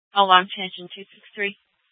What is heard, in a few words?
Fire Departments, scanner; TOPAZ; C4 Gilbert, QC, Superstition; Mesa Simulcast